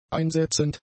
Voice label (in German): "einsetzend", german; adjectives